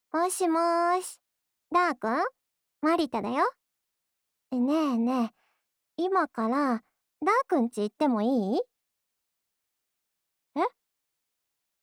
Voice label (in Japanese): ボイスオンリー, ASMR, KU100; プロローグ